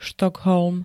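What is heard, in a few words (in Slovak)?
"Štokholm", Slovak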